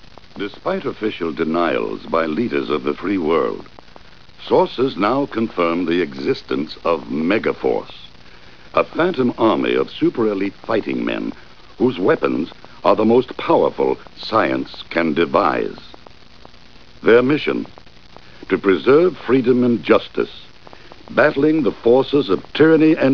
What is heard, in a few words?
Intro Narrative